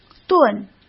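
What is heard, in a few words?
"顿", Mandarin Chinese; hsk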